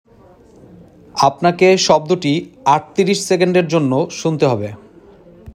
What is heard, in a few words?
male sound given